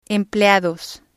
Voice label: "empleados", spanish